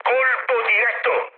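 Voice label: Italian bomb success mood med, speech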